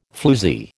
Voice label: "floozie", American

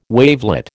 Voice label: "wavelet", American

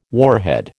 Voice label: "warhead", American

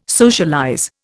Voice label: "socialize", Indian

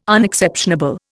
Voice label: "Unexceptionable", Indian

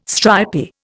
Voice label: "stripy", Indian

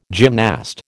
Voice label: "gymnast", American

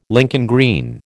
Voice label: "Lincoln green", American

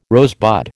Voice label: "rosebud", American

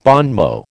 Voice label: "bon mot", American

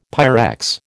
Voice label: "Pyrex", American

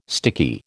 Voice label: "sticky", American

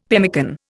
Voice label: "pemmican", Indian; Dictionary

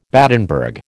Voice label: "Battenberg", American

